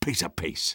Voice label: Sniper positive vocalization